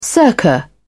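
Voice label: "circa", British English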